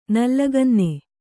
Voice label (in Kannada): "ನಲ್ಲಗನ್ನೆ", kannada